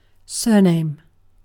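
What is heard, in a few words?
"surname", British English; pronunciations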